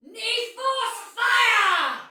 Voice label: Attack Soldier Female, Battle; Dialog; Barks; en; Sinecure